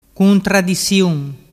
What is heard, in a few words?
"cuntradisiun", dialettu